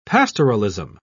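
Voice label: "pastoralism", British English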